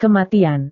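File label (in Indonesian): "kematian", Indonesian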